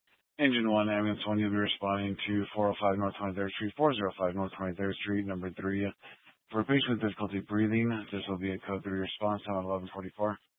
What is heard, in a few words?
Fire Department Dispatch, scanner; Grand Junction Fire Department Dispatch